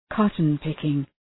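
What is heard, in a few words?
"cotton picking", English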